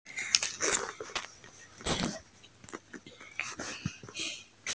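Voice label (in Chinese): "si1ji1", Mandarin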